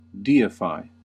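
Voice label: "deify", US English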